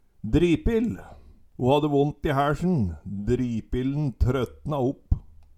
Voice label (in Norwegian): "dripil", Numedalsmål